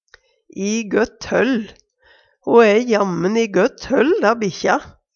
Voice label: i gott holl, Numedalsmål; Ho æ jammen i gøtt høll, da bikkja.; o væra i gøtt høll

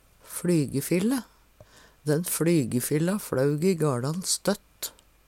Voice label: "flygefille", Numedalsmål; Den fLygefilla flaug i gaLan støtt.; Substantiv hokjønn

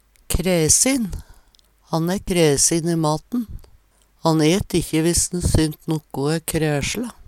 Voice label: kraesin, Numedalsmål

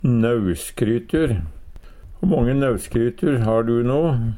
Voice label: "nauskrytur", Numedalsmål; Substantiv inkjekjønn

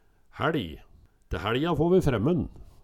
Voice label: hæLj, Numedalsmål

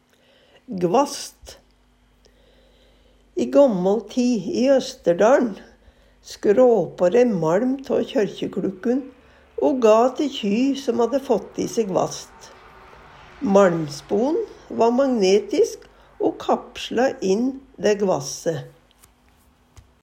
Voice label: gvasst, Numedalsmål

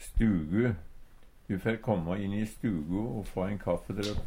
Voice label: stugu, Numedalsmål; Substantiv hokjønn

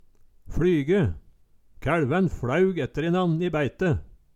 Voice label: fLyge, Numedalsmål; KæLvæn fLæug etterinnan i bæite

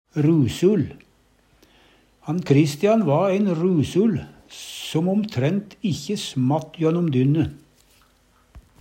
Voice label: "rusul", Numedalsmål

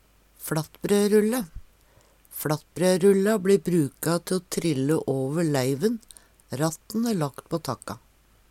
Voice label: fLattbrørulle, Numedalsmål; FLattbrørulla bli bruka te o trille åver leiven ratt`n æ lakt på takka.

